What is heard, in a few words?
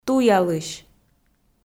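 "туялысь", Komi, female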